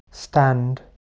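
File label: "stand", English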